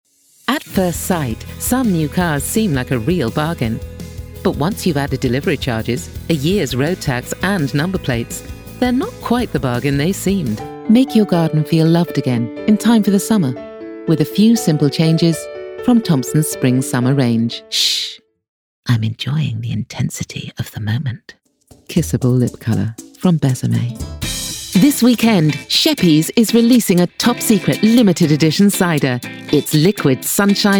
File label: Commercial Reel